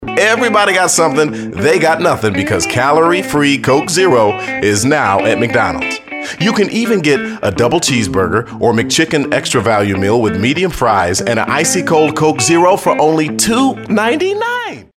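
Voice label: English US, male, commercial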